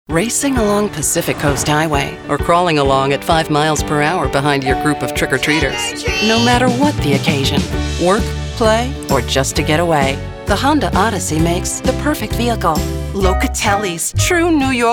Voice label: commercial